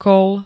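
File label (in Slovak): "kol", Slovak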